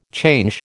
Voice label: "change", American